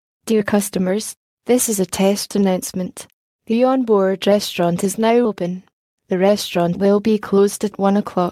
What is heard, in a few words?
Test Announcement